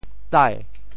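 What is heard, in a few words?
"tai2", Teochew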